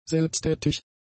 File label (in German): "selbsttätig", German; adjectives